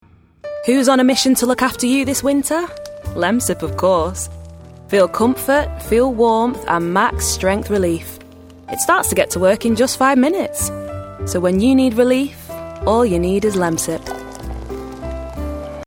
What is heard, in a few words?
female English Mancunian accent commercial; english northern accents; lemsip